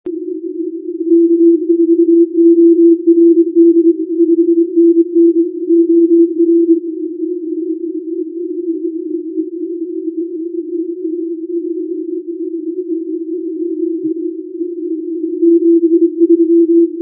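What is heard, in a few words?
qso, 160m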